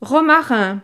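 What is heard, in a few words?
"romarin", French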